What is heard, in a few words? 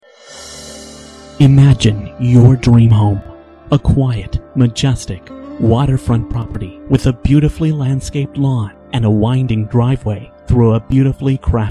voice commercial real estate